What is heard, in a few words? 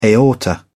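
"aorta", British English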